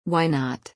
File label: fast